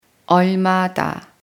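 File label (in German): "wie viel kostet", German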